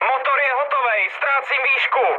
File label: damaged engine, speech cze, mood high; War Thunder